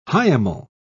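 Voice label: "hiemal", English, British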